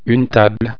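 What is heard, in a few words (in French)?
"table", French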